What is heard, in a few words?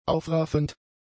"aufraffend", German; adjectives